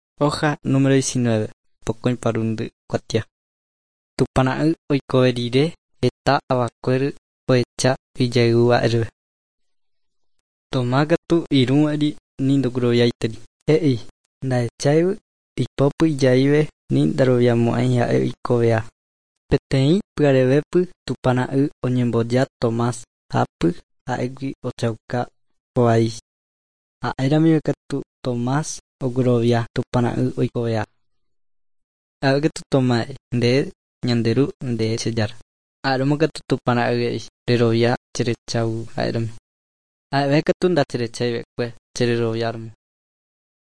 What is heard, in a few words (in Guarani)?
guarani mbya; good news and words of life; bible